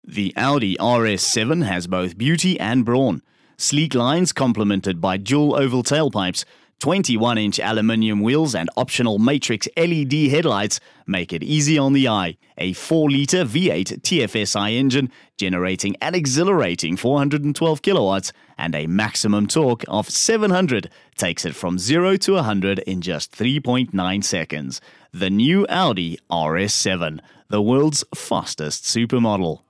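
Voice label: South African English, Commercial; GoLocalise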